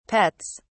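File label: "pets", English, British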